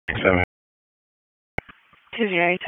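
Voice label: Wake Forest Police Dispatch, p25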